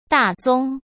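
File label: "大宗", Mandarin Chinese; chinese-flashcards; hsk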